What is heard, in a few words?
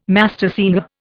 "mastersinger", Indian